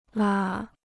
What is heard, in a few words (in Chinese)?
"啦", Chinese